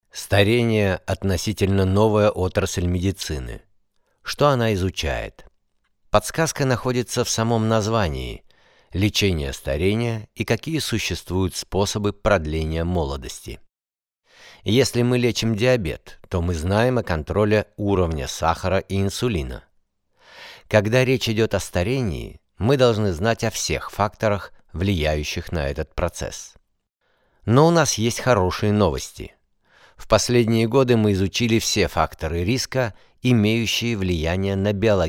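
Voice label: voice over Russian; Imune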